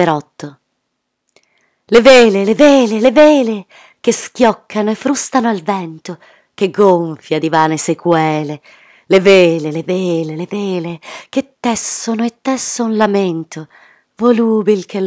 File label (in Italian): Barche amorrate poesia; Dino Campana; attori